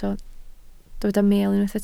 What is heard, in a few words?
"meel", Welsh